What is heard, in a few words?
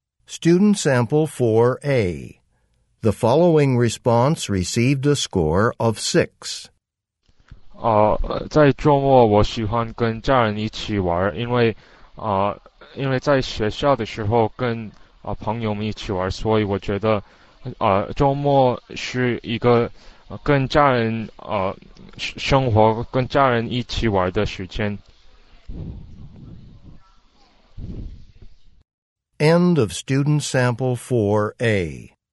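chinese conversation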